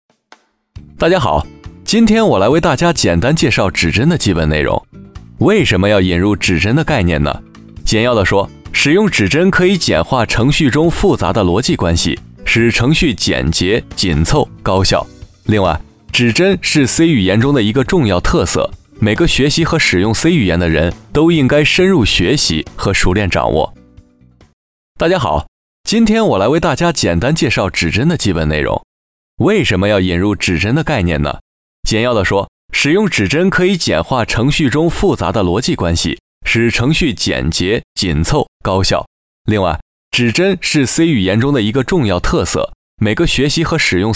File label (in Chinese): 男 课件 轻快